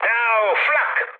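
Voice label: Italian damaged aa mood med, speech; War Thunder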